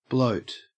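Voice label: "bloat", English, Australian accent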